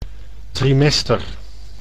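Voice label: "trimester", Dutch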